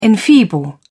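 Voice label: "enfeeble", British English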